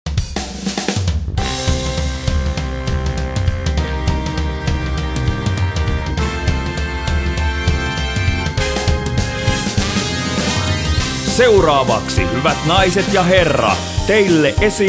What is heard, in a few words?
Jingle speak